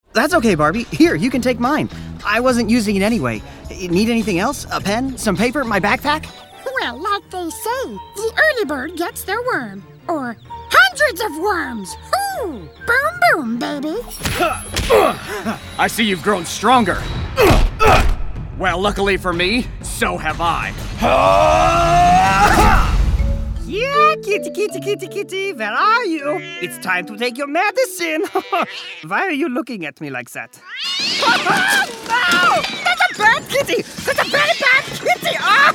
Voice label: Animation Demo, Male

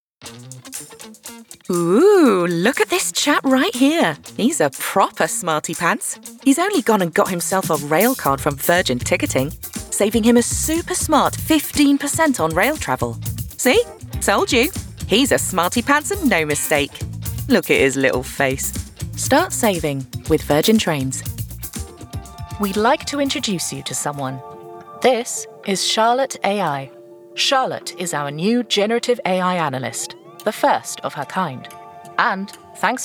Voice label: Commercial

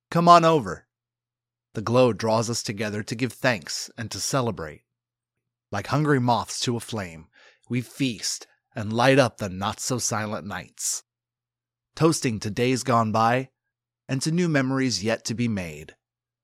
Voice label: Cooking Ad

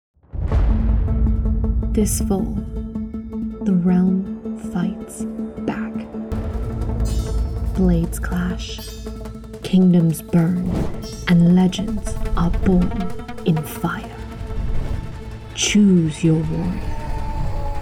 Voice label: VO Promo

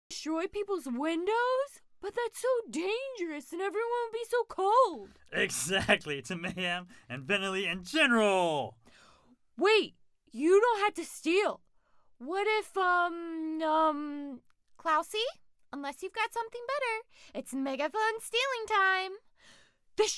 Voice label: voice over

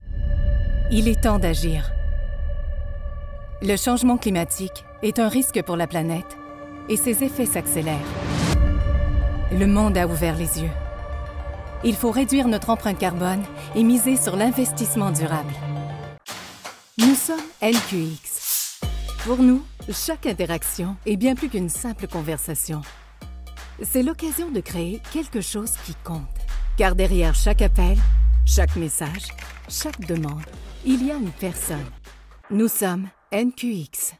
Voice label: Corporate Narration Female Voiceover

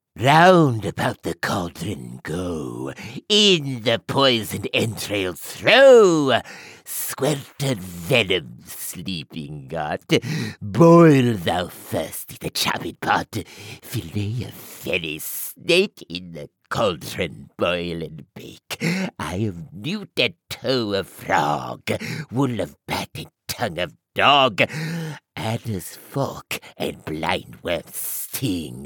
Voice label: Witch; Macbeth

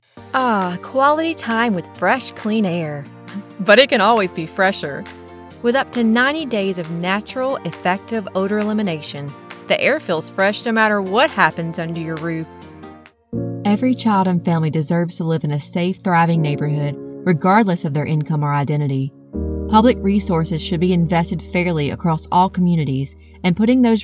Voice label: Commercial

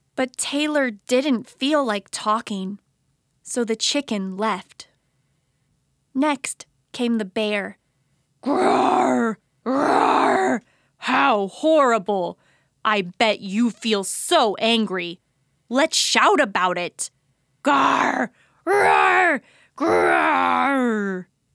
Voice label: Reading, Maternal Soothing

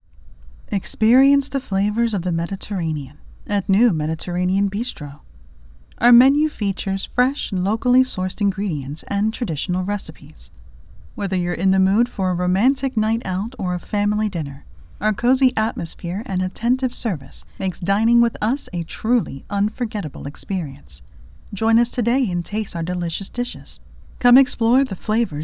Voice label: Restaurant Commercial

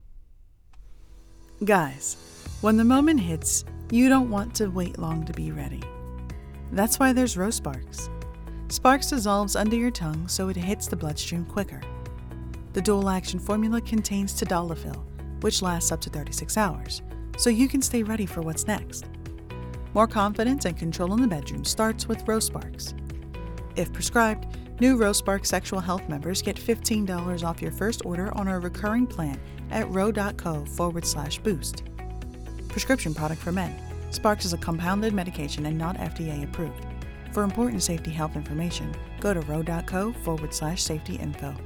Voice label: TV Radio Ad

